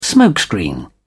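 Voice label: "smokescreen", British English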